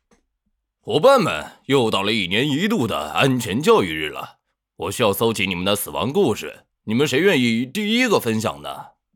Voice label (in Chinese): 老师 大叔